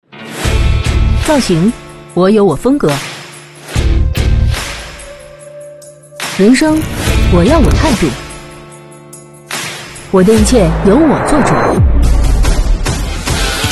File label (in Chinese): 女, 广告; 大众一汽; 探影